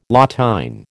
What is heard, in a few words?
"La TÊne", American